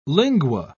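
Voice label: "lingua", British English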